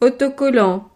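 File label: "autocollant", French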